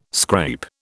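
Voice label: "scrape", British English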